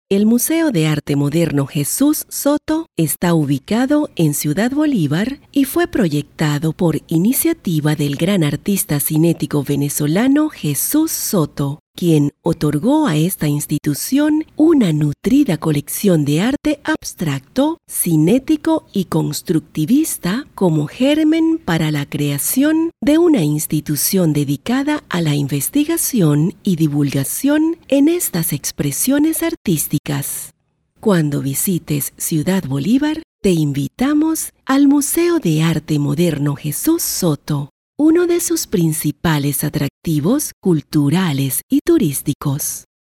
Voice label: Tour Guide